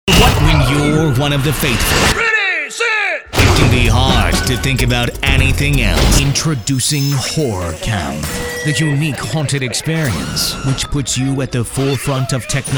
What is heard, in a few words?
attitude